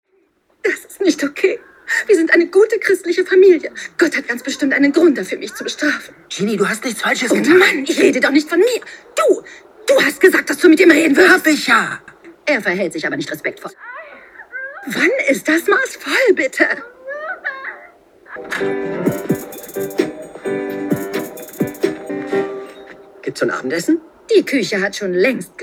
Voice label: synchron; Geny

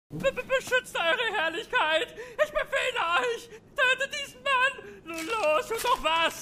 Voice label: Comicstimme

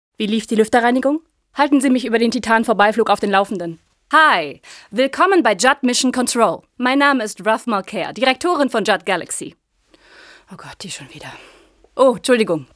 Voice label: Synchron; Chefin

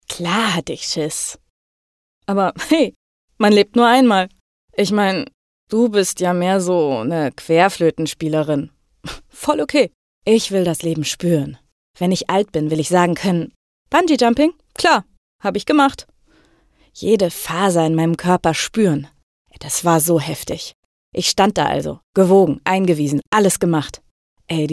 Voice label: Haltung Cool; Anfang 20, cool; Werbung

